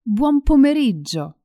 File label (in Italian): "buon pomeriggio", Italian; hello in italian